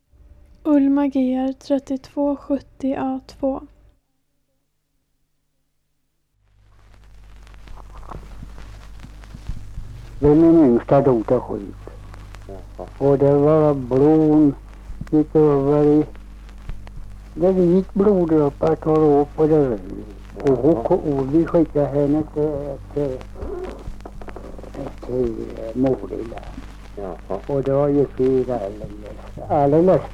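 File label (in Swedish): Gärdslösa Öland, dialekter; Folkminnen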